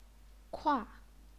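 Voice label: "kua", Chinese, fourth tone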